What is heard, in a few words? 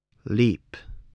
"leap", English